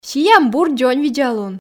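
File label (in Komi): "сиам бур дзоньвидзалун", Komi, female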